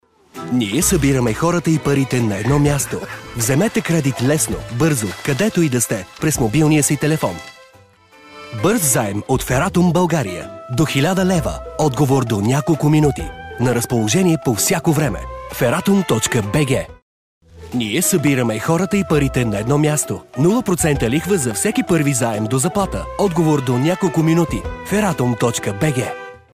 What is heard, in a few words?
male bulgarian voice over sales